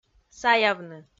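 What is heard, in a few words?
"саявны", Komi, female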